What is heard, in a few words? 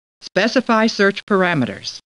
Star Trek Computer Voice; StarTrekLCAR